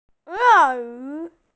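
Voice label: "crocodile", Mandarin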